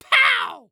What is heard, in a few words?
Cartoon Voice "Pow"